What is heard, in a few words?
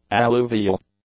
"alluvial", English; adjectives